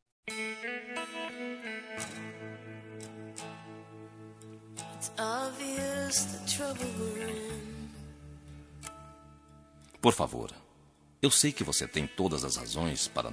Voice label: ROM DESCULPA MASC; Desculpas; Telemensagens 2017

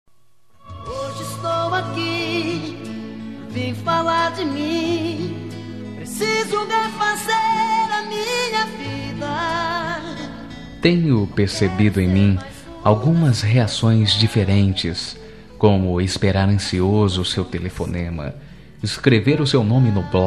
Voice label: ROMANTICO SUAVE m, Dia Dos namorados; Telemensagens; masc; Telemensagens 2017

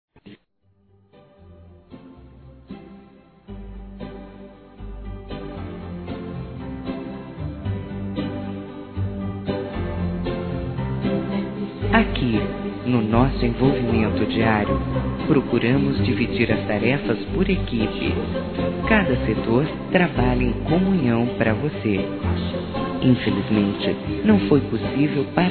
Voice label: ANIV. ATRAZADO, fem, Telemensagens Empresarial; Telemensagens 2017

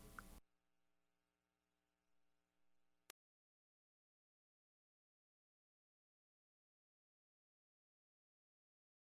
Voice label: Amar Você Masculino, Só Voz; Telemensagens; MOMENTOS DE AMOR

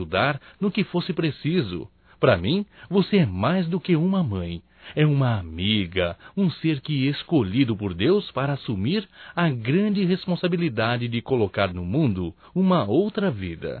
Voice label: desculpas mãe, masc; Telemensagens 2017

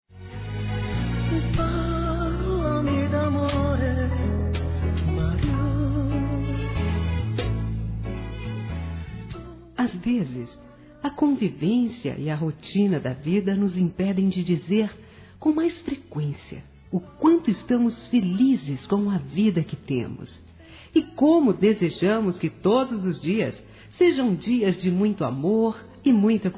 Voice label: Aniversário Esposo Feminino; Aniversários; Aniv Familiar

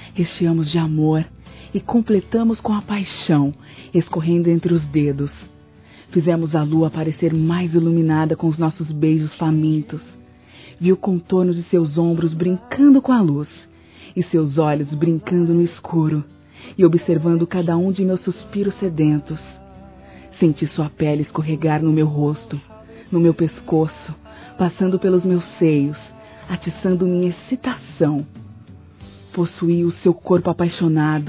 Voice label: NOSSA NOITE FOI DEMAIS (F), Sensuais; Telemensagens; fem

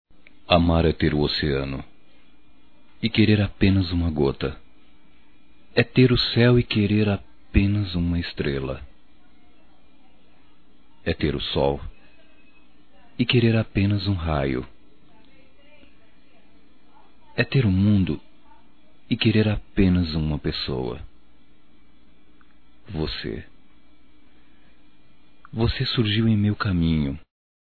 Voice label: ROMÂNTICA POESIA - M; Românticas

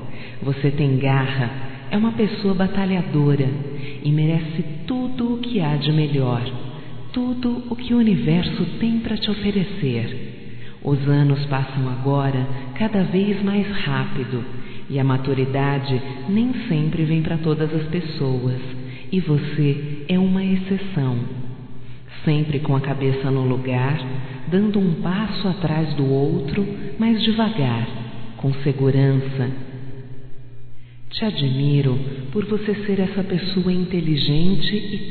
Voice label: ANIV NEUTRO, fem; Aniversarios; Telemensagens; Telemensagens 2017

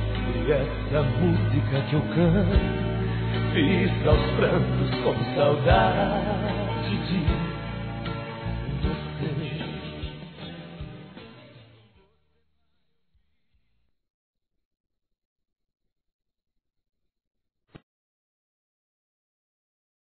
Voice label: AMIZADE VIROU AMOR, Telemensagens, Masc

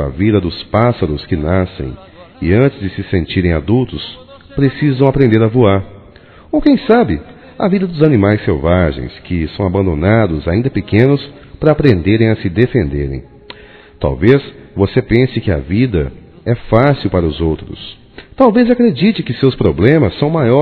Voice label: Otimismo masculino; Telemensagens

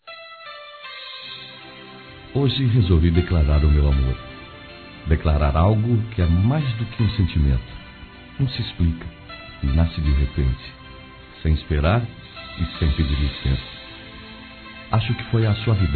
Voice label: Declaração Romântica Masculino; Telemensagens; Declaração de amor; Telemensagens 2017

